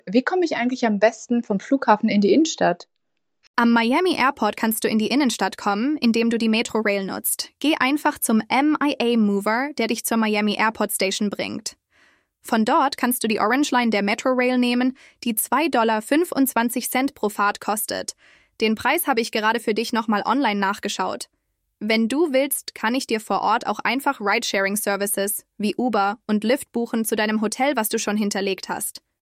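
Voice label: Voice Guide Sample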